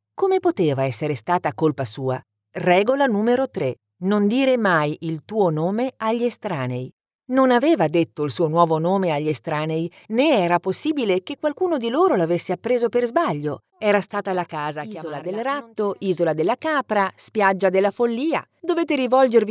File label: italian audiobooks